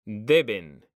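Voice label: "deben", Spanish, male; mekanta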